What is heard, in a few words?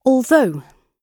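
"although", British English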